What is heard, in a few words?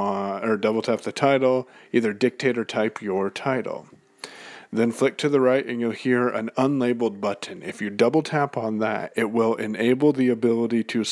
using mobile application to schedule a blab with voiceover; PayOwn